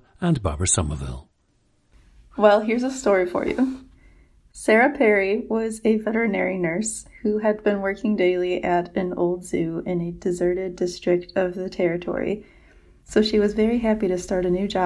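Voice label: michigan, International Dialects of English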